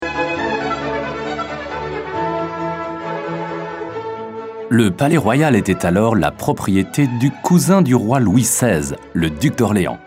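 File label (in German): French, Fremdtake Neutral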